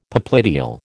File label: "popliteal", American